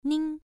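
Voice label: "ning", tone 1, pronunciation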